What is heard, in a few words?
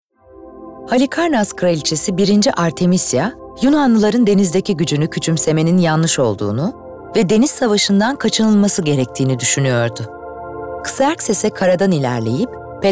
Belgesel